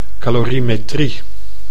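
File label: "calorimetrie", Dutch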